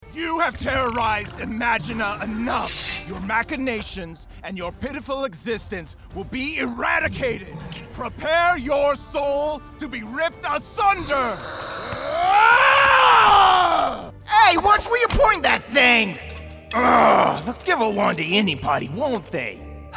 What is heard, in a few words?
Animation